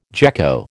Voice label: "gecko", American